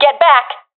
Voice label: request fallback, professional fem; vo; Counter Strike Model Pack; CS:GO

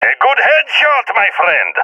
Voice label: sees headshot, balkan; vo; balkan epic

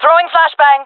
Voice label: throwing flashbang, jungle fem; player vo; Counter Strike Model Pack; CS:GO

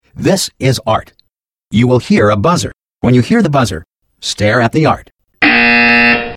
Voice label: opening exercise, announcer; Portal 2

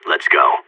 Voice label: radio lock n load; Source Model Packs; Counter Strike Model Pack; CS2; seal

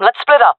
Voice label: request spread out, jungle fem; player vo jungle fem; Counter Strike Model Pack; CS:GO

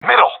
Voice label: loc mid, professional epic; vo; Source Model Packs; Counter Strike Model Pack; CS2

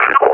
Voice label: announce kill player, combine officer; Half Life Alyx; Half Life Model Pack

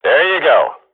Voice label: radio bot cheer, swat; Counter Strike Model Pack; CS:GO

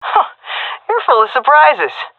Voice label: compliment, vo fem; agents; Source Model Packs; Counter Strike Model Pack; CS2

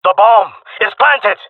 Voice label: bomb planted, jungle male; vo; agents; Source Model Packs; Counter Strike Model Pack; CS2

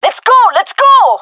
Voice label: request move, gendarmerie fem; vo

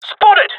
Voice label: takingfire, male; jungle; Counter Strike Model Pack; CS:GO

